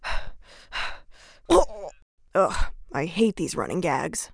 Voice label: pissed, en; Runner